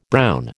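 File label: "brown", American English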